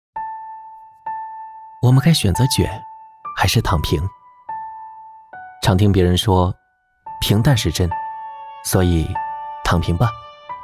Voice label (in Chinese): 讲述; 感行你所行无问西东